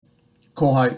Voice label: "Kohai" Japanese